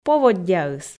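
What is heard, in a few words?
"поводдяыс" Komi, female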